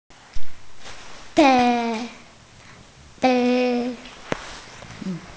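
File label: "pei2", Mandarin Chinese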